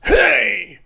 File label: cartoon hey